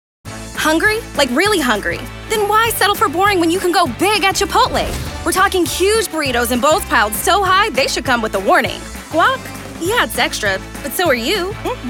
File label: Commercial